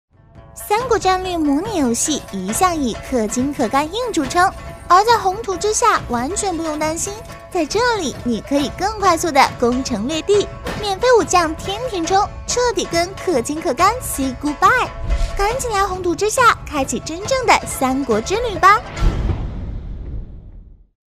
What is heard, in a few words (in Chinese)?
女-游戏方言 台湾软妹-台湾腔